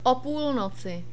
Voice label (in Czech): "opulnoci", Czech